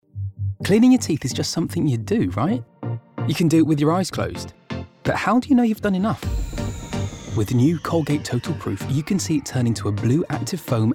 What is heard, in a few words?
COMMERCIAL REEL